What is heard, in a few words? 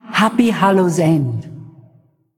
Happy Halloween, Female Ghost